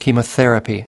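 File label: "chemotherapy", US English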